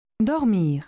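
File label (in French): "dormir", French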